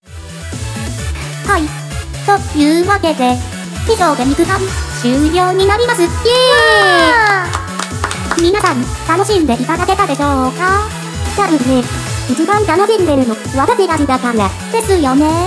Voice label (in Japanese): フリートーク; Vocaloid; Hatsune Miku; みくのかんづめ